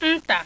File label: igbo, vowels